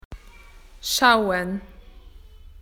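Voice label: "to look", Australian English; PictureDictionary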